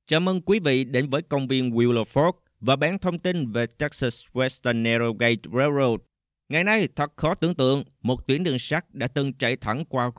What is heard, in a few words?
Panel Audio Vietnamese; Willow Fork Park